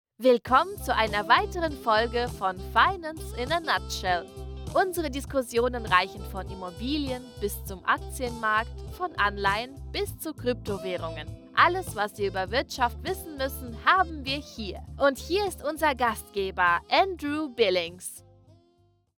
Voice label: german podcasts

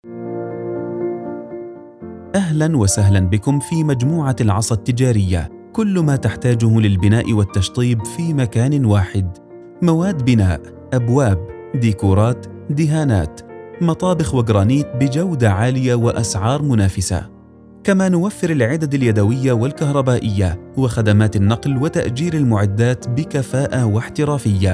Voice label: arabic ivr

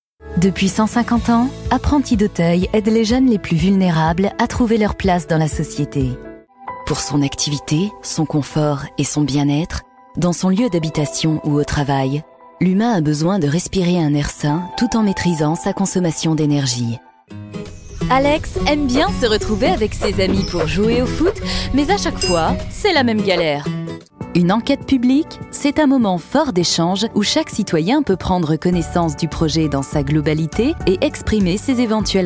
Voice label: french corporate videos

